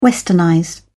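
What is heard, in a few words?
"westernize", British English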